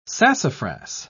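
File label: "sassafras", British English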